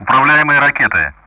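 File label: Speech Sound Russian; Common Player Weapon missiles; DCS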